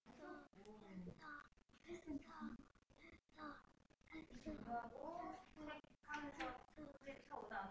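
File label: "pencil", spoken word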